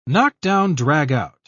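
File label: "knockdown-dragout", English, British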